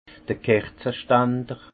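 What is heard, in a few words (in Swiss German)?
"kerze stander", Haut Rhin; vin; bougeoir rats de cave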